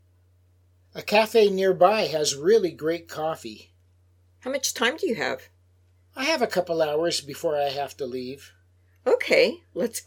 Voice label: Natural Dialog; Lesson 3